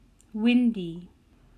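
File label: "Windy", English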